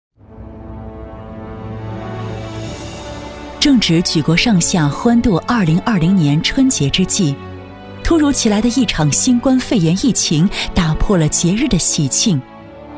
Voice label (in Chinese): 女 疫情专题 大气深情; 运管局疫情防控; 磁性质感; 成熟知性; 女54-疫情专题《运管局疫情防控》-大气深情